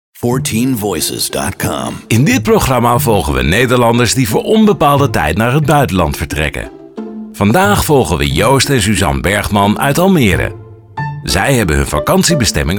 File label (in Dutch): Narratief Corporate; 14Voices